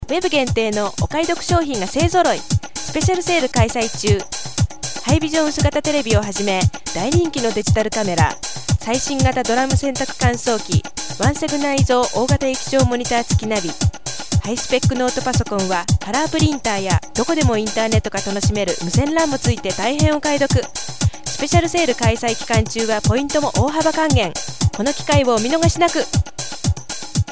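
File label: Japanese, female, commercial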